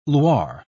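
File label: "loir", British English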